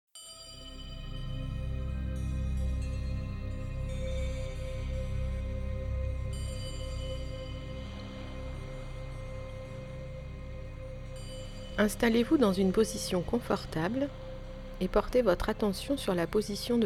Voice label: Mer Animal Compter Femme Musique

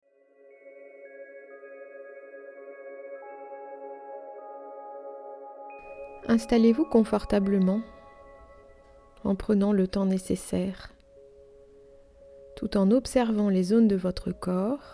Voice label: Montagne Animal Compter Femme Musique

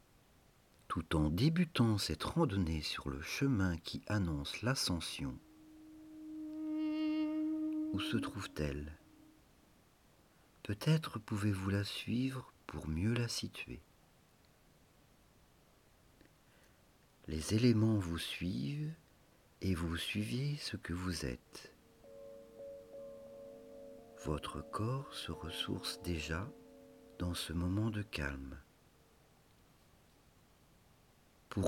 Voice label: Montagne Animal Respiration Homme Musique

